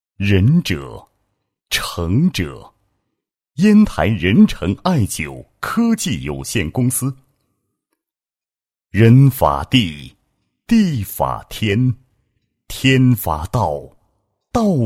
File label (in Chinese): 男, 公司企业; 艾灸宣传介绍; 仁成